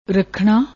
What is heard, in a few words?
"rakhna", Punjabi